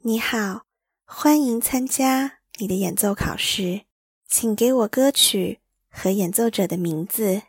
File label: name of artist chinese